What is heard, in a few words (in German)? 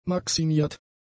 "maximiert", German; adjectives